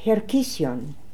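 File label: "Herkission", pronunciations; Fourth Way; Beelzebub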